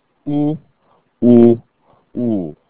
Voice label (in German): "u", German alphabet